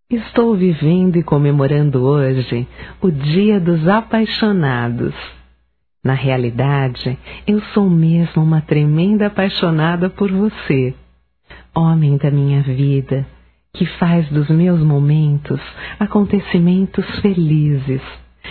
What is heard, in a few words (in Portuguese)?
Dia dos Namorados Feminino, Telemensagens, Só Voz; Telemensagens 2017